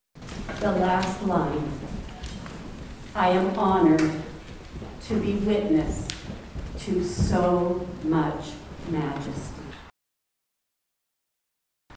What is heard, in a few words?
Narration